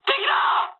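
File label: Japanese enemy detected mood med; War Thunder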